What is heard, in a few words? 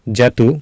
"jatu", Sanskrit